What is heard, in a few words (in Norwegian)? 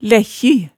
"lækkji", hemsedalsmaalet tale